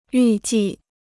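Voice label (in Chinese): "预计" Chinese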